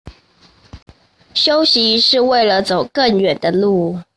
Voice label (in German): Chinesisches Sprichtwort Aussprache; Kleine Pausen